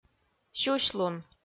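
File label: "сюсьлун" Komi, female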